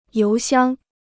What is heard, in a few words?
"邮箱", Chinese